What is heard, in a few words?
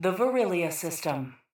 PA System